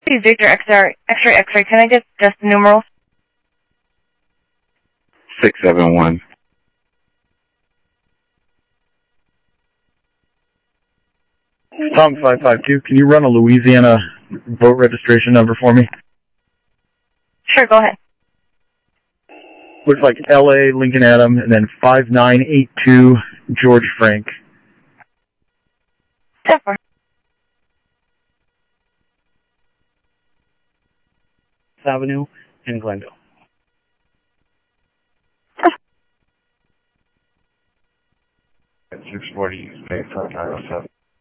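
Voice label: Sheriff East County, scanner audio trunk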